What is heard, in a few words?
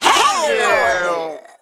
Male Mech Death